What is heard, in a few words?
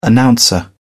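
"announcer", British English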